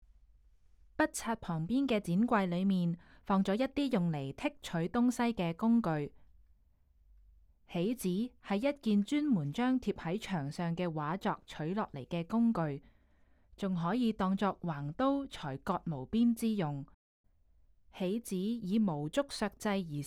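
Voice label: Cantonese, audio guide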